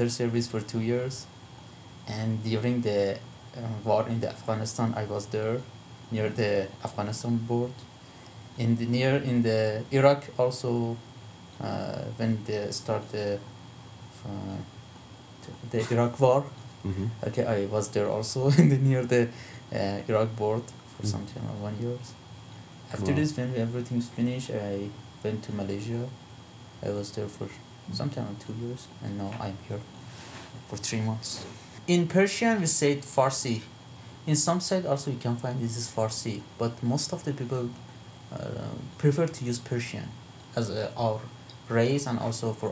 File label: Iran Natural conversation, dialect samples, middle east